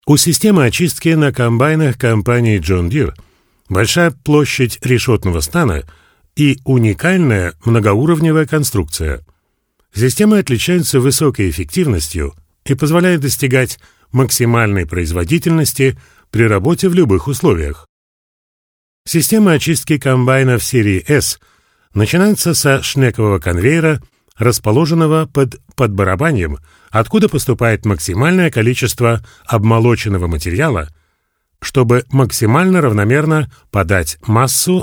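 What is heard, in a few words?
Russian, male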